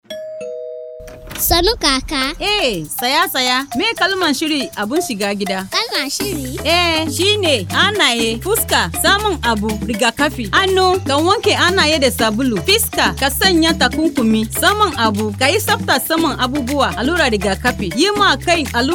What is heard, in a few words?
Radio Working Women Hausa